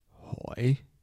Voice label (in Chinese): "台" 台城 男; hoi215